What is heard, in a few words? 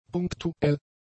"punktuell", German; adjectives